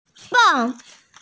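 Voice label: "beng4", Mandarin Chinese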